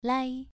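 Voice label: "lai", Mandarin Chinese, second tone, pronunciation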